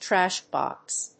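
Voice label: "Trash box", English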